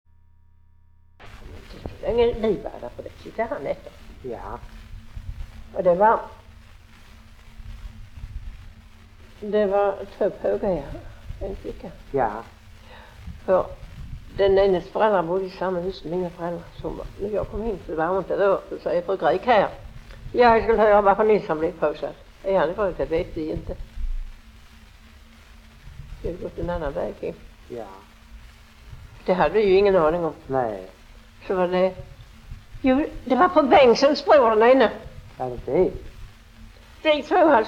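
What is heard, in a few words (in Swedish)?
Lunds stad, Swedish dialect; Folkminnen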